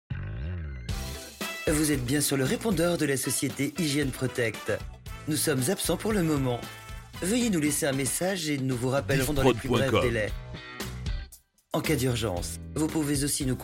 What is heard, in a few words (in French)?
Repondeur; Hygiene Protect